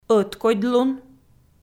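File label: "ӧткодьлун", Komi, female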